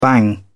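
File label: "bang", British English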